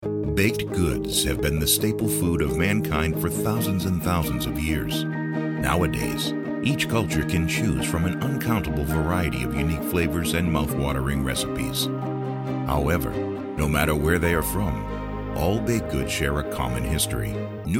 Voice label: Corporate Infomercial